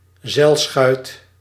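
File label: "zeilschuit", Dutch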